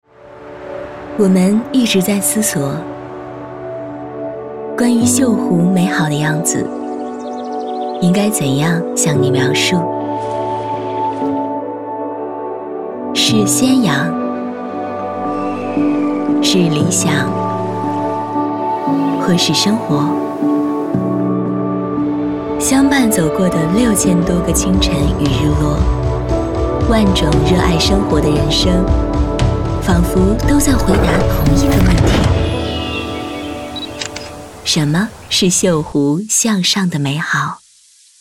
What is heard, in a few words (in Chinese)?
女 宣传片 青春温情地产; 秀湖向上